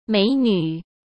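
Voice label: "美女", Mandarin Chinese; chinese-flashcards; hsk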